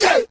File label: "yeah", group of men; ooh ahh